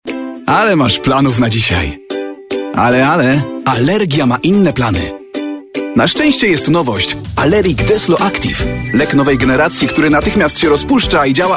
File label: commercials